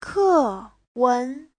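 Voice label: "kèwén", Mandarin Chinese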